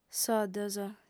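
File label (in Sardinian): "soddos", female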